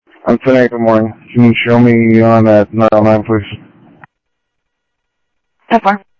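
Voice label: Sheriff Lake Patrol, scanner audio; ThompsonPeakScot; MCSO Lakes 1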